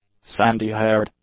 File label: "sandy-haired", English; adjectives